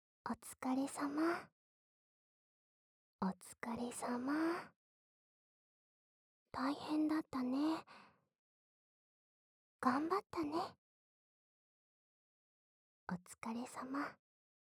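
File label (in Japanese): 左耳 お疲れ様 頑張ったね, 囁き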